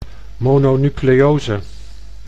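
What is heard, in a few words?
"mononucleose", Dutch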